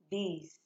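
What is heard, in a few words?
"dis", Nigerian Pidgin, female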